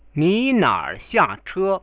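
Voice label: ninaarerxiache, Chinese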